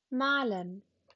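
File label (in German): "malen", German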